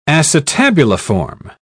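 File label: "acetabuliform", British English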